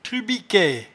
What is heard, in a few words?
"trubiquaie", patois jura